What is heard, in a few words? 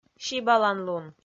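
"сибаланлун", Komi, female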